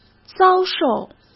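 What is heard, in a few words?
"遭受", Mandarin Chinese; chinese flashcards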